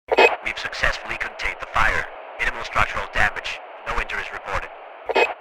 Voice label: Firefighter radio chatter we have contained the fire